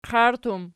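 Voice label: "qairt" plural, Archi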